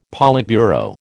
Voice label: "politburo", American